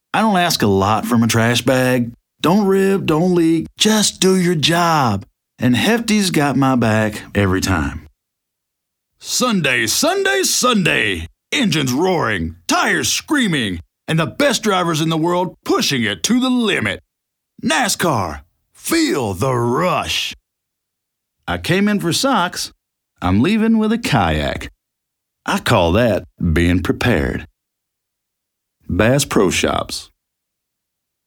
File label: Dry; inviting and trustworthy with a touch of authentic twang